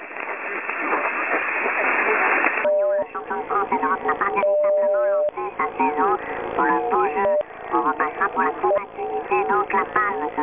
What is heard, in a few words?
Distorted Voice